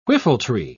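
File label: "whiffletree", British English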